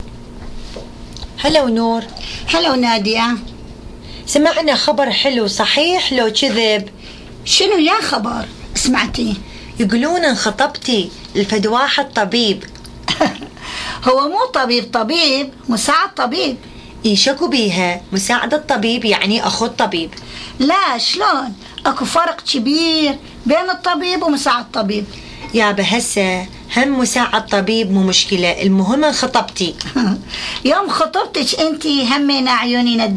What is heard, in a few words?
Dialogue about marriage, Iraqi Dialect